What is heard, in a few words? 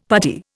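"puttee", Indian English; Dictionary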